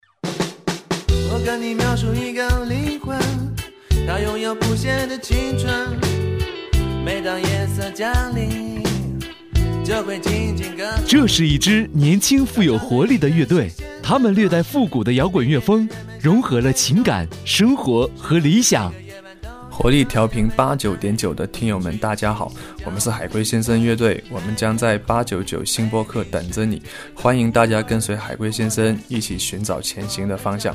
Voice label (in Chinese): 预告